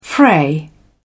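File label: "fray", British English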